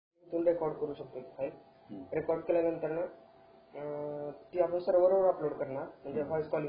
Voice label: Voice Call voice